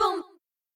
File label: "rah rah sis boom ba boom", girls; cheerReaders